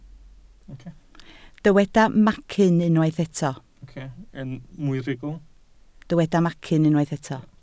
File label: "macyn", Welsh